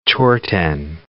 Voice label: "chorten", English

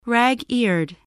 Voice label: "rag-eared", english

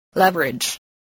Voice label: "leverage", spelling

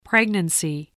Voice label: "pregnancy", voice, spelling

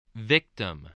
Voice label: "victim", English

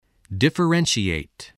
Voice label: "differentiate", english spelling

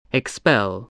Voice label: "expel", voice

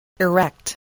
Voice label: "erect", voice, english

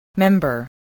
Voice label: "member", voice, spelling

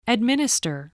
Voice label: "administer", English voice

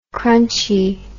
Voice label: "crunchie", english

